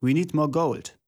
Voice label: German voice; wyrmsun

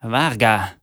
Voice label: annoyed, Proto-Germanic voice-overs; Proto-Germanic Voices

